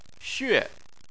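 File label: "xue", Chinese, fourth tone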